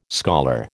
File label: "scholar", American